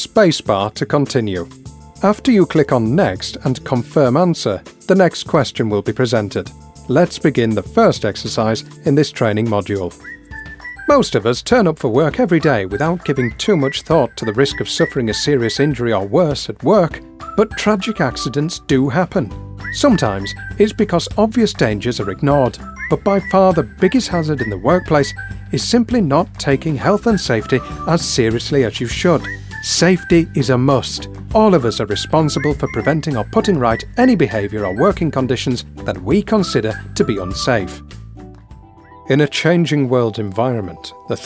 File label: E Learning Narration